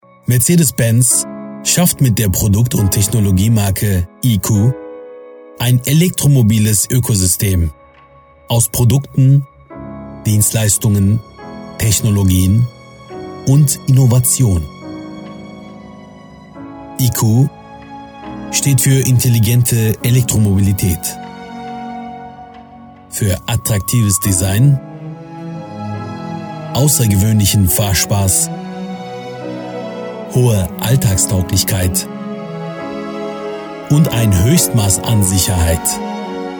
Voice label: german voice over male commercial